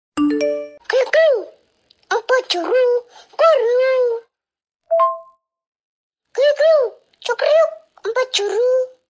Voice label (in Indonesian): nada dering kiw kiw cukurukuk anak kecil